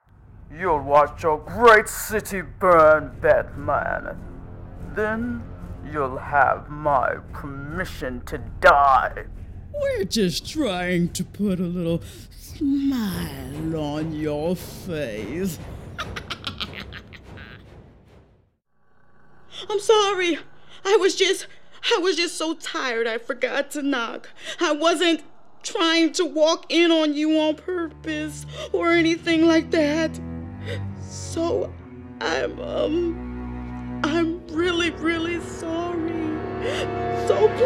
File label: VO video game